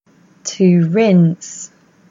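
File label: English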